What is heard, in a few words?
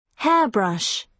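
"hairbrush", British English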